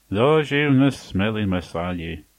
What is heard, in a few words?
slowly, manx